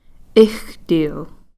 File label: "ixtiil", ten